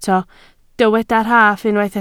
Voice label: "rhaff", Welsh